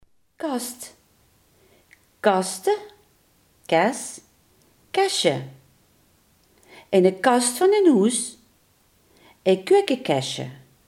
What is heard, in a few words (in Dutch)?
"kast", Limburgs